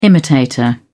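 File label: "imitator", British English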